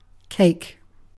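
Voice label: "cake", British English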